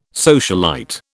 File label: "socialite", British